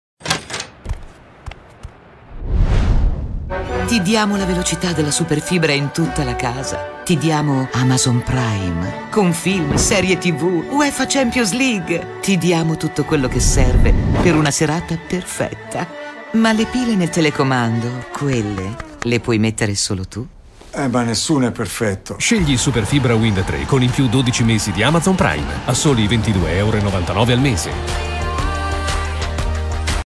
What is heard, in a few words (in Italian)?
spot pubblicita; wind super fibra; fiorello